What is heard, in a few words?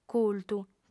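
"cultu", female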